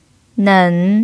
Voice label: "nen3", Mandarin pinyin

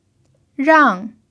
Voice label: "rang4", pinyin

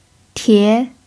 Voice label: "tie2", pinyin

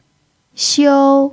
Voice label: "xiu1", Mandarin pinyin

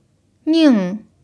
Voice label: "ning4", Mandarin pinyin

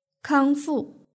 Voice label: "kangfu", Mandarin; kanyisheng

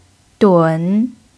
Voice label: "dun3", Mandarin pinyin

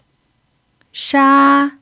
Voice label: "sha1", pinyin

